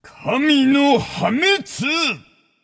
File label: alert notify kill all, japanese; caster